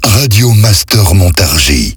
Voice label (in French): Accap slow, Voix Off; Montargis